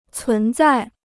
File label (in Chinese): "存在", Chinese